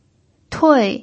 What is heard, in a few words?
"tui4", pinyin